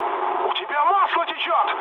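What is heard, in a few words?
Russian damaged oil mood med, speech; War Thunder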